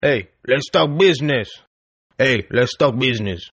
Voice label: Toughguy Business English, Tough Hispanic Male Saying; Saying "Let'S Talk Business!" In English; Attitude Sound Effects